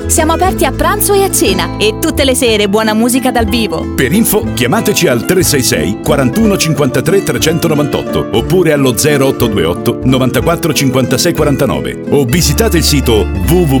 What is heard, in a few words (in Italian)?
spot sagra fusillo felittese